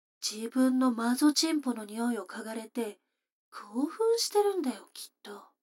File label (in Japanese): 女の子台詞